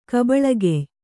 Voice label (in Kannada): "ಕಬಳಗೆಯ್", kannada